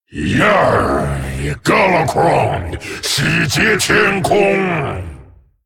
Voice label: Male Dragon PIRATE DAY; 海盗节 Private Day; 风暴巨龙迦拉克隆（英雄）; 呀儿！迦拉克隆，洗劫天空！; zh

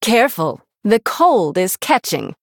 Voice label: Female Human Start; en

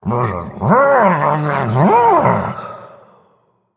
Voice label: Male Murloc Wow; zh

